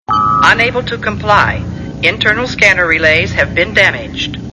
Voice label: computer voice, unable to comply